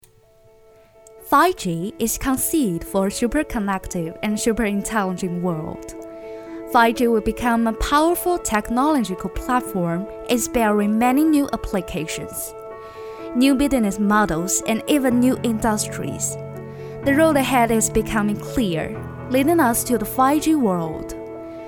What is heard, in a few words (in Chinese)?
英文配音